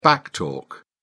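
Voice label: "back talk", British English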